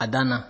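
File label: "adana", Nigerian Pidgin, male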